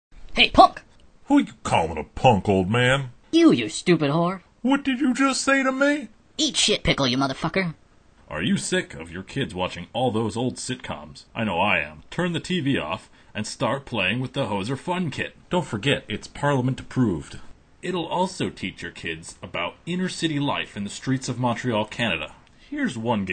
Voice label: Commercial; fake commercials; Hoser Fun Kit